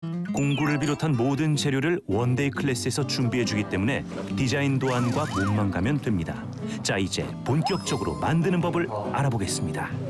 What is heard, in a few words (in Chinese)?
外籍韩语广告轻快